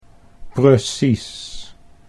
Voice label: "precies", Dutch